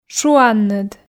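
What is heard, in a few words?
"шуанныд", Komi, female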